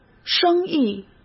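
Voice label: "生意", Mandarin Chinese; chinese flashcards; hsk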